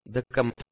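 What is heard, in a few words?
"dekmt", Proto-Indo-European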